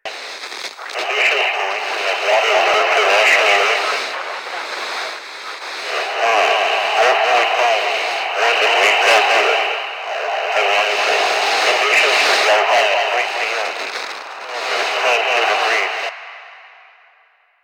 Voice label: Ambient Propaganda Loop; Warframe; Ambience; Nineteen Ninety Nine